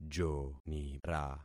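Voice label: "jonira", concatenated syllables; Affixing experiment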